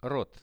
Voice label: "rot", Russian; рот

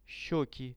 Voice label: "sheki", Russian; щёки; Это щёки.; visual dictionary

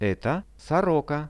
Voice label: "eto soroka" Russian; Это сорока; birds; Какая это птица?

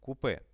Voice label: "kupe", Russian; купе; transport; RUSSIAN VISUAL DICTIONARY

